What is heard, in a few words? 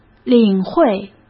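"领会", Mandarin Chinese; hsk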